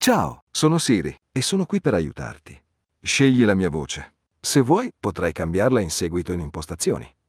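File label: Italian, Italy; iOS Sounds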